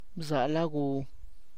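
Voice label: beans with hierba de conejo, Tlacochahuaya Zapotec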